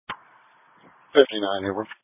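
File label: Police Patrol (Dispatch)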